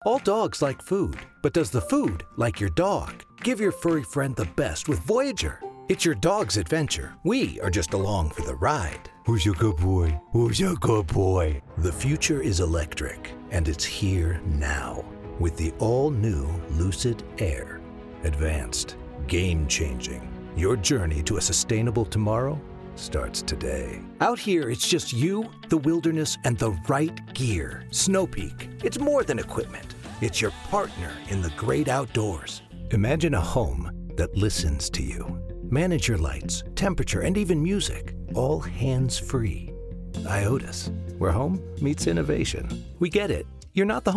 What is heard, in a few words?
Commercial